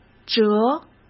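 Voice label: "折" Mandarin Chinese; hsk; chinese-flashcards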